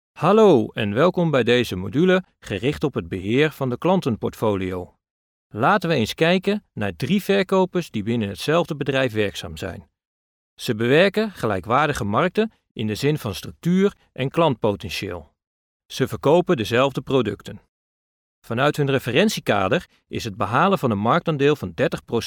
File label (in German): Nachvertonung Film Sprecher Niederländisch